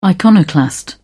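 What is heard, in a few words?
"iconoclast", British English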